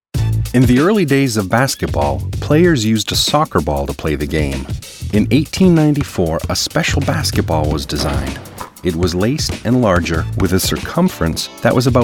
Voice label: Narration